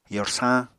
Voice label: "yorsañ", Apatani